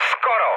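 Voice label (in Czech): Czech bomb failure, mood low; speech; War Thunder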